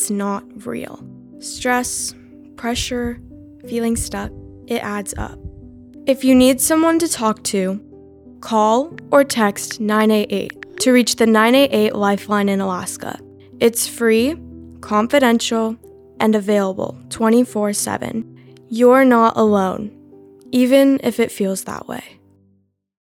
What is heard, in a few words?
RADIO SPOTS You Dont Show it Female; mental health conditions